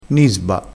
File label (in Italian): "nisba", dialettale